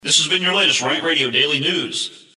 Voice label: Vocal Extro Altered; RantRadio Show Demos